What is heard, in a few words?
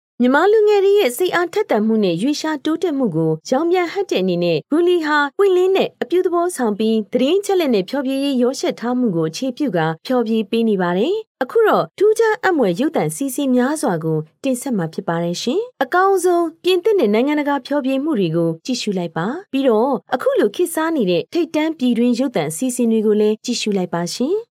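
Burmese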